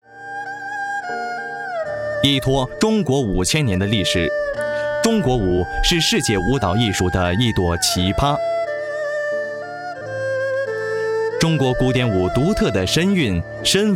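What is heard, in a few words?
Chinese, Corporate; GoLocalise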